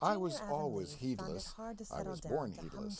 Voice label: male female mixture